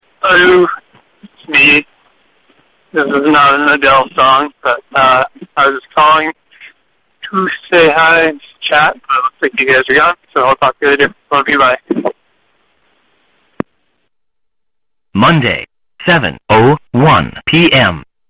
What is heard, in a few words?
calls to chat, answering machine messages